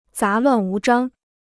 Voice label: "杂乱无章", Chinese